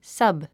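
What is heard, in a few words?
"sub", English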